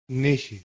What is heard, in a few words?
"nychi", Modern Greek